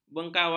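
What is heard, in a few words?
"bengkawan", Malay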